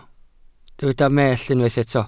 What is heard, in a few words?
"mellt", welsh